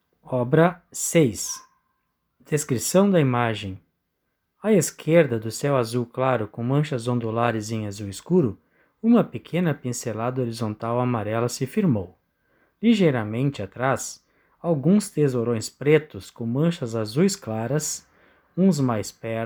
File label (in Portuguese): audiodescricao; Galeria virtual